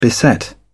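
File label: "beset", British English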